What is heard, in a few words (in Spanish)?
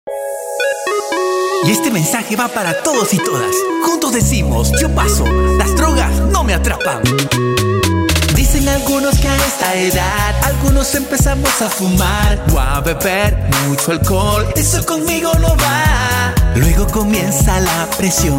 JINGLE VOZ HOMBRE; YO PASO